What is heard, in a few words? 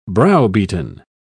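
"browbeaten", British English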